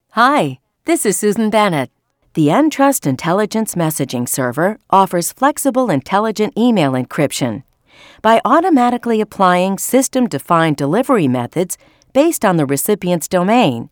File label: Narration